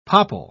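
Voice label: "popple", British English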